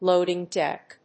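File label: "loading deck", English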